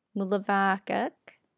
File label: ASMR